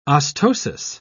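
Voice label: "ostosis", British English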